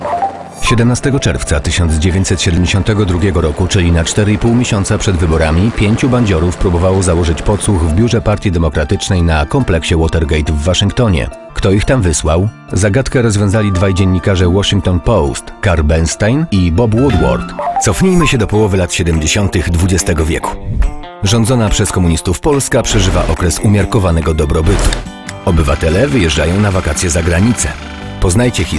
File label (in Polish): narracja; NARRACJA MIX